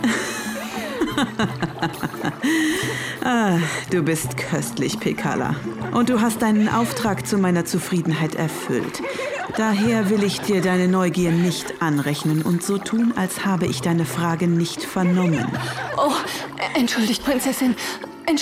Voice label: Hoerspielrolle Boese Prinzessin

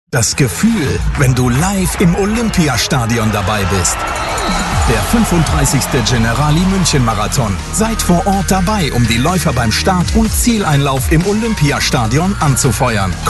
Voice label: Radiowerbung; Generali

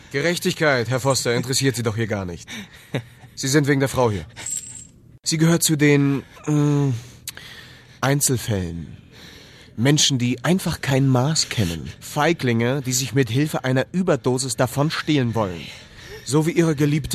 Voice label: Szene krimi

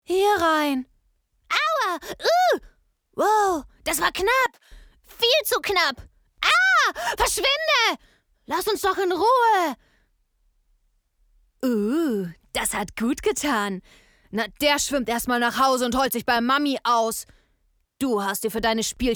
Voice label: sprache trickfilm

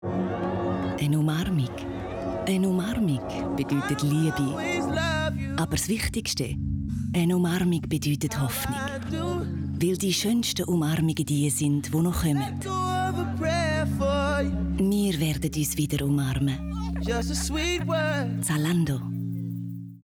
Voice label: TV Spot CH; Zalando Umarmig

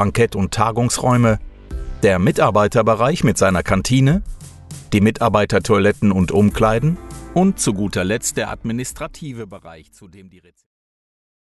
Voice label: E-Learning Hotel, Sprecher; GBZ Koblenz

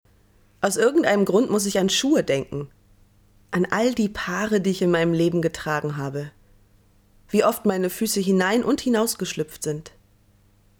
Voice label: Hörbuch

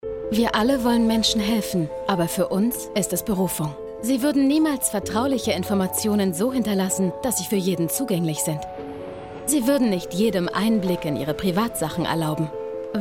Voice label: tvspot; axa

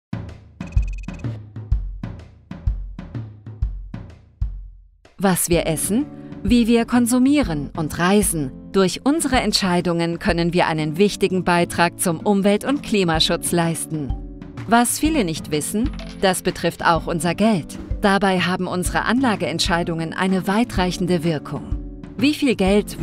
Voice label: ERKLAERFILM freundlich integrativ; NABU

